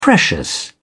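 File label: "precious", British English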